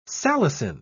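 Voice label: "salicin", British English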